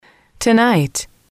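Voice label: "tonight", English, female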